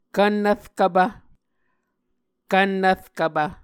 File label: "kvnnvrkvpv", Muscogee